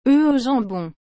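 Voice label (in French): "œufs au jambon", French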